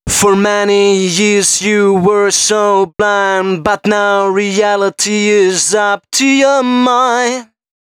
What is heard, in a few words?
male 130, Dance Ultra Voice hooklines; Mutekki Media; Dance Ultra Voice VOL-1